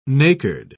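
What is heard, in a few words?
"nacred", British English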